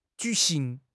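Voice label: "ju3 xing2", Mandarin Chinese; HSK3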